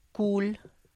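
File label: "kul", grandfather, Tlacochahuaya Zapotec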